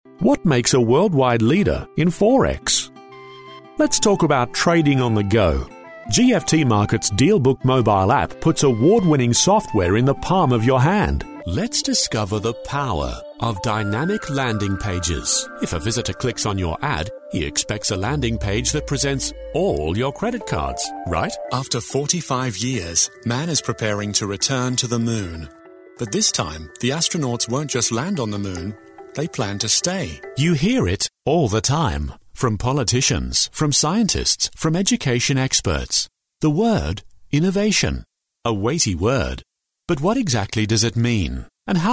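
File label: English Australian M Corporate; accent of australia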